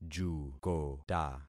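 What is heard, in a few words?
"jukota", concatenated syllables; Affixing experiment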